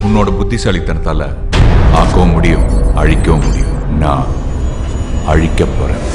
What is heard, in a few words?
trailer dialogue tones; ajith; aarambam